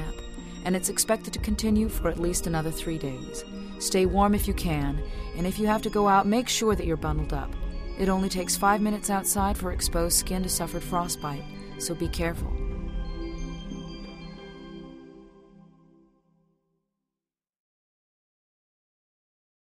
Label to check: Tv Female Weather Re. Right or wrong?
Right